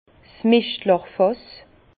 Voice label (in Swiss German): "mischtlachfass", Bas Rhin; vin; fût à purin